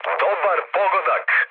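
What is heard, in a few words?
Serbian player killed enemy mood med, speech; War Thunder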